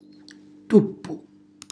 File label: "tuppu", Calabrese